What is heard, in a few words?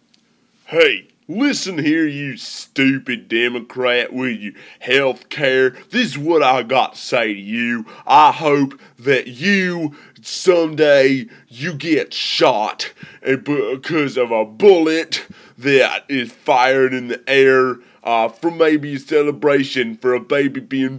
Angry; healthcare